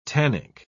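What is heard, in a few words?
"tannic", British English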